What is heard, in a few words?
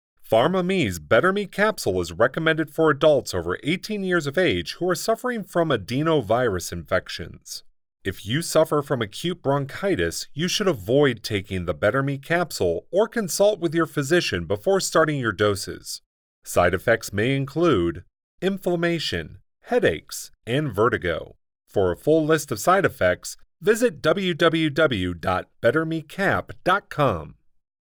Technical Voice Over Sample